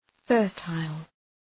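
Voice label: "fertile", English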